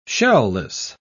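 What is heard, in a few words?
"shell-less", British English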